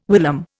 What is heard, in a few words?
"whilom", Indian